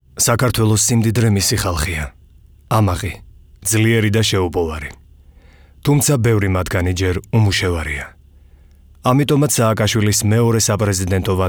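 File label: georgian male narration; voice demos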